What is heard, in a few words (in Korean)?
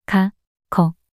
"가거" 낱소리 여자